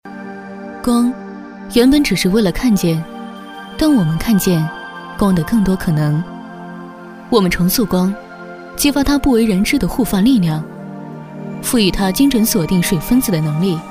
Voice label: 女 品牌广告 【吹风机-科幻】; 年轻时尚

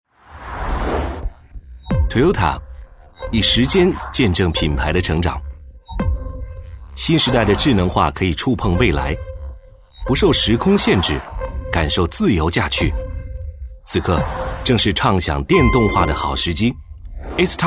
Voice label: 男, 品牌宣传, 洋气平稳; 磁性稳重; 年轻舒缓; 一汽toyota

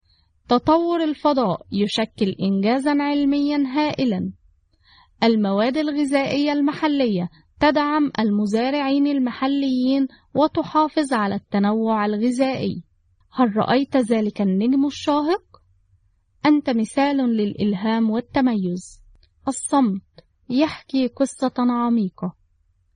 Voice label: 阿拉伯语女声

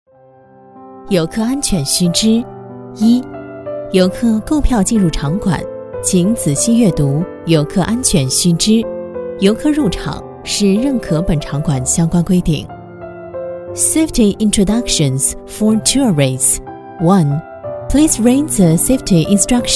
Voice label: 女-游客安全须知【中英文提示】; 舒缓抒情

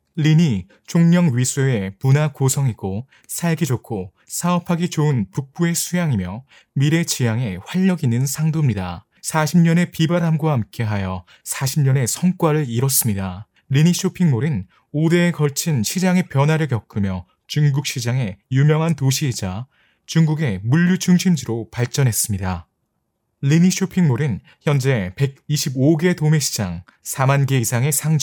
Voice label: 韩语男声

